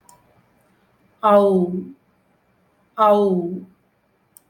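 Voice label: au (a diphthong, as ou in 'ouch'), Tamil, vowels; ஒள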